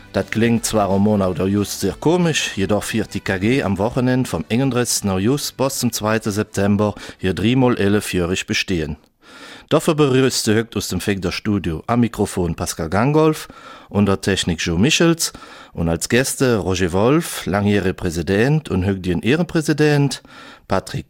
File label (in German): Mundart; 3 x 11 Jahre KG Steinbockhausen-Hünningen und erfrischende Geschichten von früher